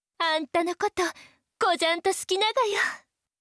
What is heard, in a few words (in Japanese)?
好きです 高知; 貴音